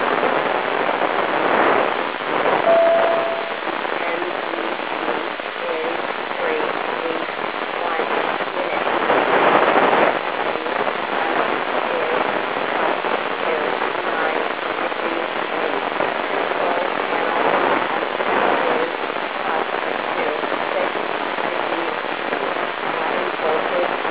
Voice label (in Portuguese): voz, satelite